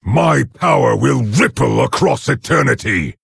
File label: Orc Emote Start; Nerzhul